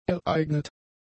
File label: "ereignet", German; adjectives